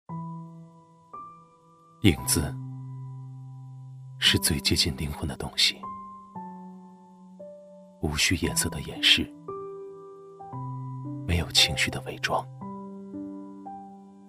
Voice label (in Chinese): 男-品质广告-低沉走心; 三星; 走心旁白